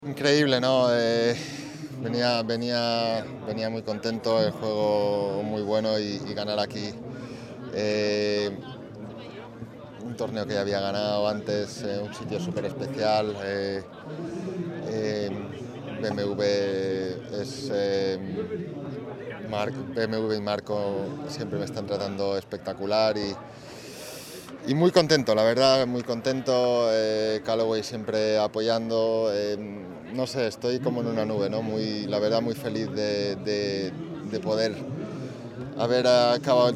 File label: SPANISH